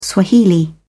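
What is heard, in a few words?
"swahili", British English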